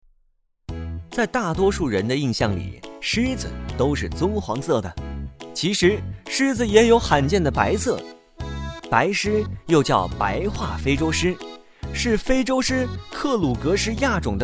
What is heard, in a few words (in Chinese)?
男 科普 年轻 时尚 青少年科普知识纪录片